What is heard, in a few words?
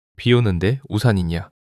neutral